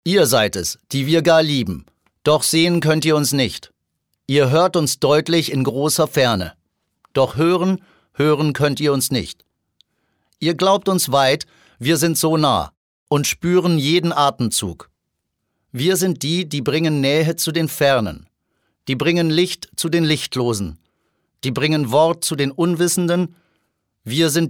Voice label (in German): sachlich